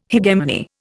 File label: "hegemony", Indian